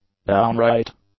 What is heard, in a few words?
"downright", English; adjectives